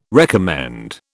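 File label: "recommend", British English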